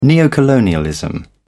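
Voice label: "neocolonialism", British English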